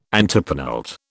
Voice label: "antepenult", British